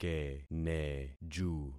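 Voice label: "keneju", concatenated syllables; Affixing experiment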